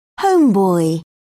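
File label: "homeboy", British English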